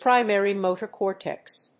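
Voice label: "Primary motor cortex", English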